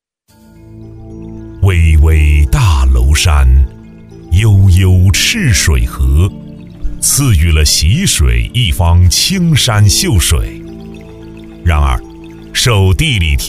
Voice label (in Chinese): 男国 易地搬迁建设 磁性